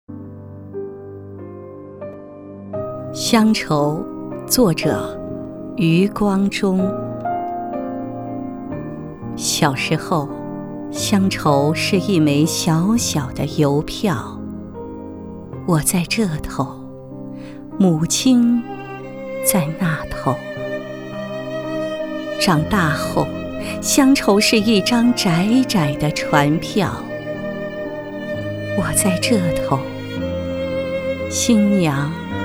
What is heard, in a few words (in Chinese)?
朗诵, 女国; 乡愁